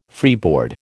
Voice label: "freeboard", American